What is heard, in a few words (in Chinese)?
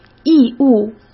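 "义务", Mandarin Chinese; hsk; chinese-flashcards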